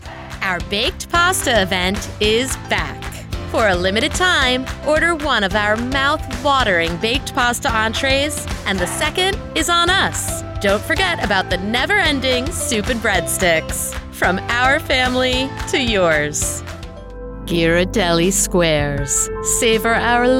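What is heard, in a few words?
Voice Commercial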